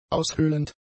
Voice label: "aushöhlend", German; adjectives